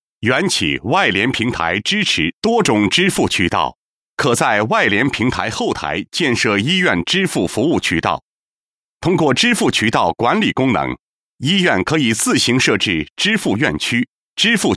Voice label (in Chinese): 男-医院-软件功能介绍